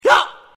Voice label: male sound; mkii